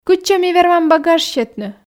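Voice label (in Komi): кытчӧ ми вермам багаж сетны, Komi, female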